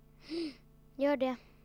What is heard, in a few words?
ingressive mm gor det, child male; JIPA 2008